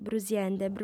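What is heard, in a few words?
"brusiende", female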